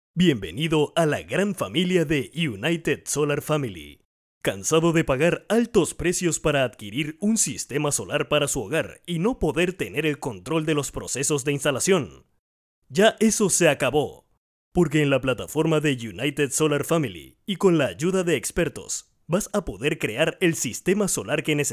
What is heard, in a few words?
Spanish (LA), Male